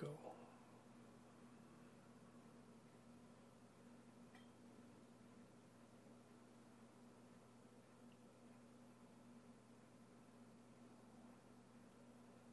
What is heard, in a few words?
meditation guided breath